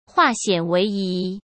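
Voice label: "化险为夷", Mandarin Chinese; chinese flashcards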